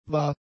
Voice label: "wahr", German; adjectives

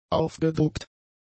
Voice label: "aufgedruckt", German; adjectives

